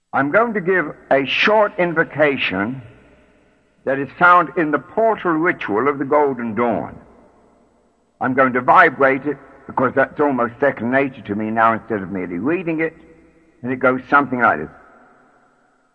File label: Short Enochian Call; Enochian Magick; from the Portal Ritual; The World of Enochian Magick; Israel Regardie